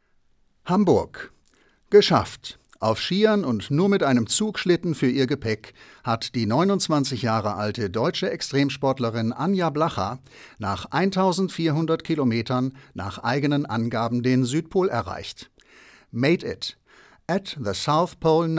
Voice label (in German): Nachrichten Text